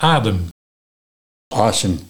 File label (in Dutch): Adem - Asem, Nieuw lekkerlands dialect